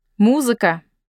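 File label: "music", Russian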